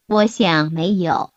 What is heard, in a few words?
Mandarin Conversation